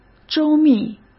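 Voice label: "周密", Mandarin Chinese; chinese-flashcards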